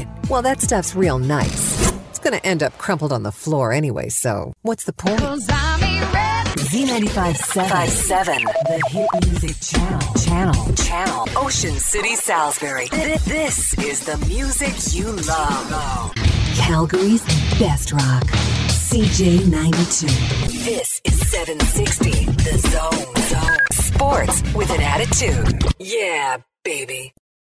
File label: radio imaging